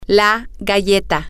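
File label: female, spanish; the Cookie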